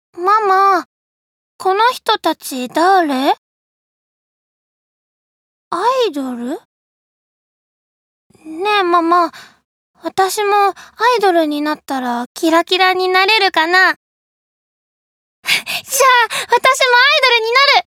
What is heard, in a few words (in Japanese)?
少女